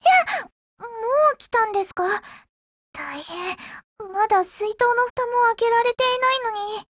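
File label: HELLO Japanese, Voice; GF Alarm; AKAlfa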